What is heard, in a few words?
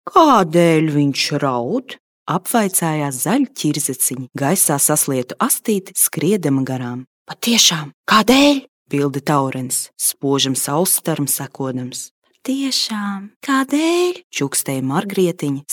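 Latvian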